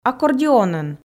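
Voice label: "аккордеонӧн", Komi, female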